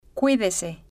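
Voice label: "cuidese", spanish, female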